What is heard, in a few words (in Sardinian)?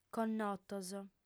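"connotos", female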